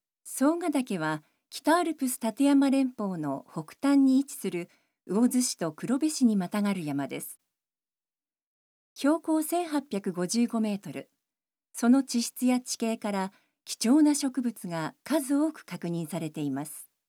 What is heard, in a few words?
Narration